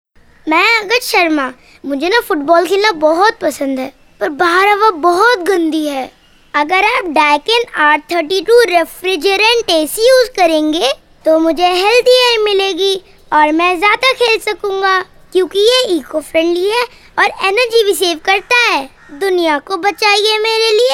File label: child ad hindi